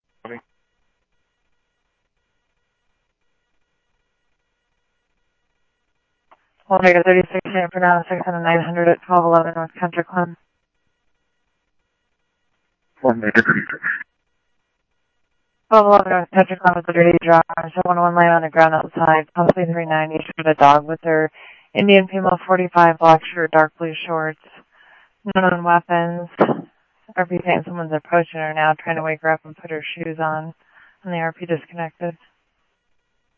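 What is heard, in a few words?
Mesa Police Department simulcast, scanner audio; MESA PD 2 Centrl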